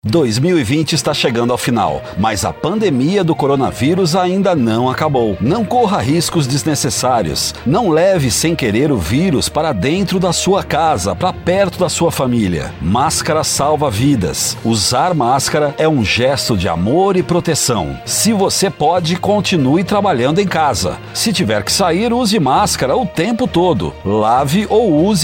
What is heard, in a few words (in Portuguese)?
spot alerta; coronavirus; Linha Alerta; Radio